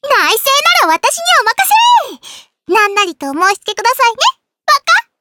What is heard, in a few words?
game voice